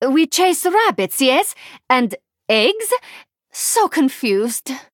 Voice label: Female Draenei HAPPY NOBLEGARDEN

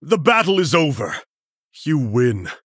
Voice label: Male Human CONCEDE; Arthas

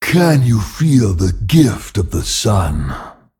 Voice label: Male Anubisath

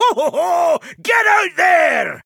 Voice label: Male Dark Iron Dwarf Emote Ahead

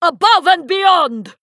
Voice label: Female Draenei Attack

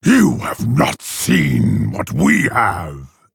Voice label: Blademaster Male Orc

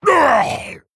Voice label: Male Tauren Death

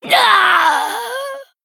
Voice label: Female Sanlayn Death

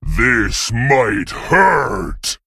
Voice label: Male Ogre

